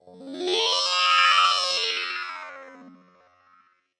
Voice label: bubbly gliss vox; Robotic or Stuttery or Spooky